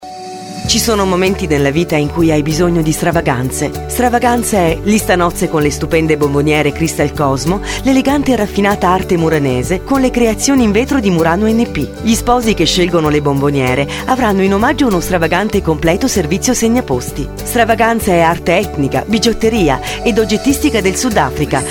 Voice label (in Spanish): italiana